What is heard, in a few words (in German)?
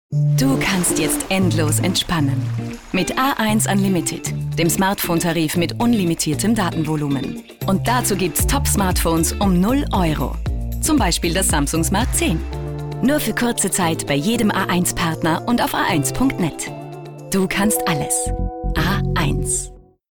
Frau OFF